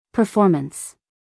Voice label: "performance", US female; everyone-can-use-english

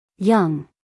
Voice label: "young", us female

